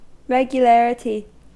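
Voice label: "regularity", American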